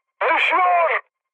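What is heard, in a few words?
Turkish aircraft destroyed, mood high, speech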